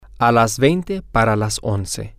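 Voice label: Spanish, twenty to eleven, male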